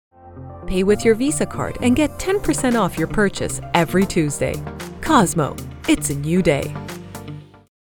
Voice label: english international others